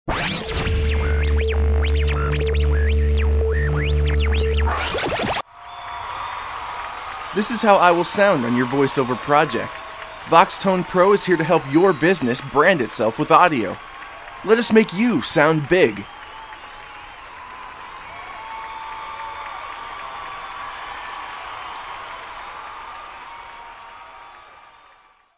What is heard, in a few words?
Audio Ad Sample